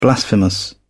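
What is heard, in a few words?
"blasphemous", British English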